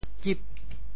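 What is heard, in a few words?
"kip8", Teochew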